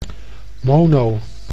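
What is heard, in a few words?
"mono", Dutch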